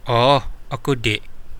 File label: yes a little, kadazan